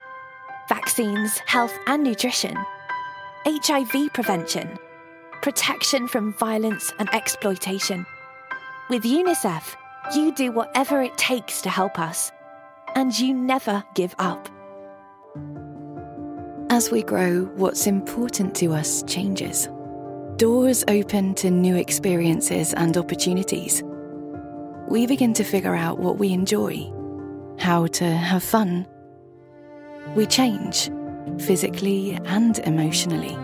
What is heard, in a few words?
Children